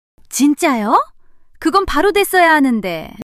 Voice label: surprise, monologue